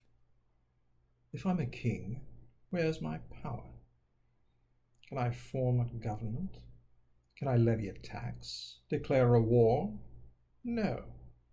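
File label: British Aristocrat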